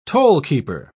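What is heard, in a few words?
"tollkeeper", British English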